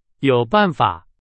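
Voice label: Chinese, example sentence; hsk2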